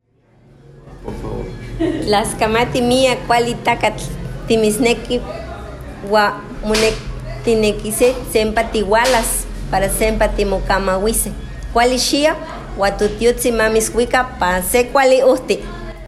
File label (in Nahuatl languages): Saludo nahuatl